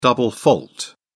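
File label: "double fault", British English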